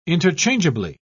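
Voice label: "interchangeably", English, British English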